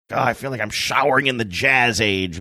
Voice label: saying aw it feels like im showering in jazz age gruff